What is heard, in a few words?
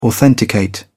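"authenticate", British English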